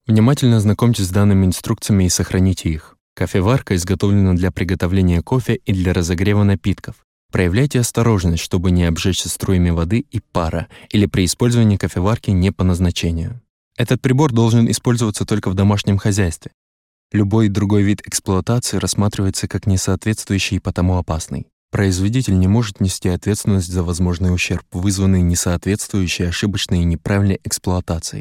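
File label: Russian, Corporational; GoLocalise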